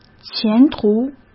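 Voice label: "前途", Mandarin Chinese; hsk; chinese-flashcards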